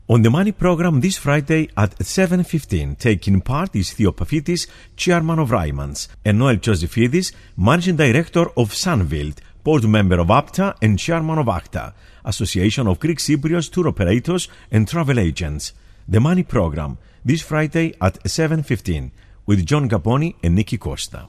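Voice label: Greek English; Greek, Male, Home Studio, 20s-40s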